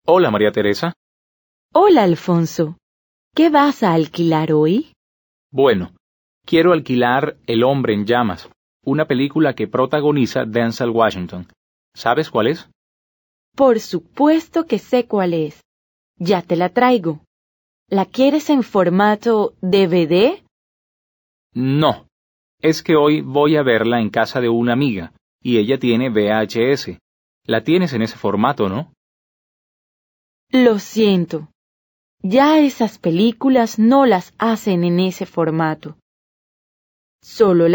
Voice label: Dialogue, Spanish